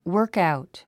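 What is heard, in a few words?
"workout", English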